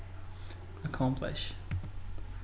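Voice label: "accomplish", Brazilian male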